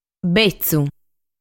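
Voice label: "Betzu", Sardinian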